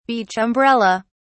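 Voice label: "beach umbrella", English, British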